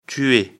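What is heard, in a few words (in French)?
"tuer", French; afrikalan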